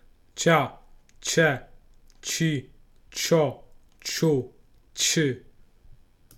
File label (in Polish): "ć", fonetyka